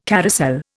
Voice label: "carousel", Indian